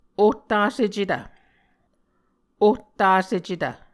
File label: "ohtasecetv", Muscogee